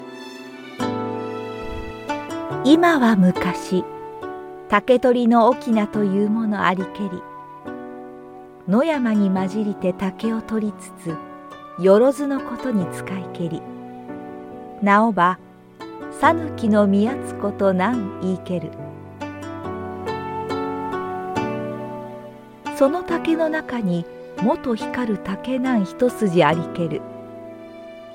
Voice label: japanese audiobooks